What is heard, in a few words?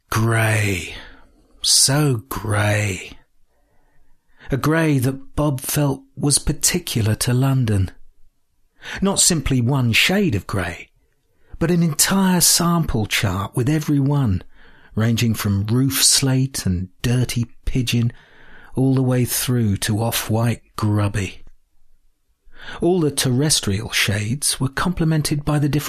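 drama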